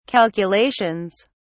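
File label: "calculations", English, British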